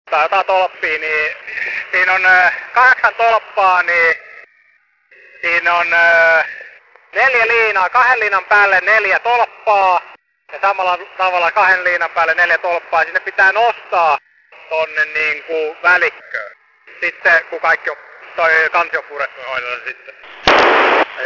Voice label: PMR446 Finnish unknown

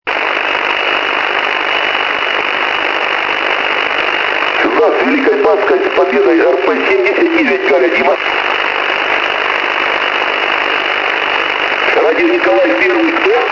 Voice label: russian ham

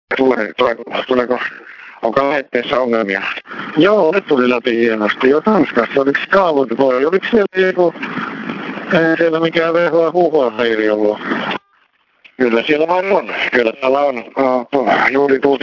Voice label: DMR Finnish ham camping

